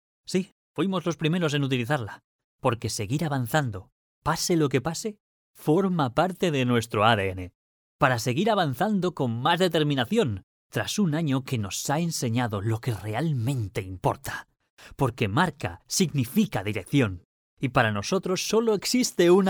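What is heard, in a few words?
Spanish Spain, male, voice over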